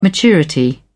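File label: "maturity", British English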